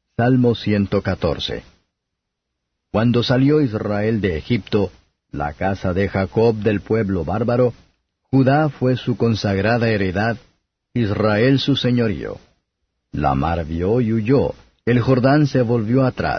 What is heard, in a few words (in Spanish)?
salmos spanish